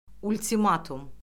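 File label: "ultimatum", Azerbaijani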